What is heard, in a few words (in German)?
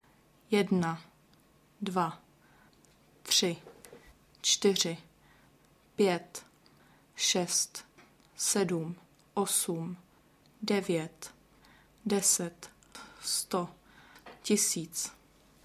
Tschechisch